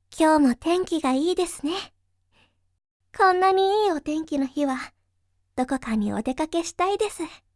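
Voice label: girl otonashii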